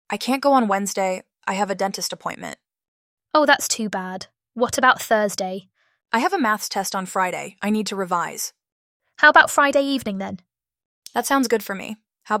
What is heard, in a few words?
dialogue two girls going to the concert